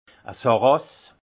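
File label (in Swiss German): "sarras", alsace Haut-Rhin; vin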